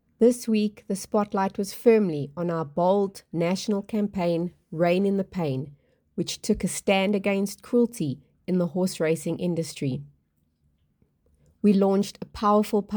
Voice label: voiceover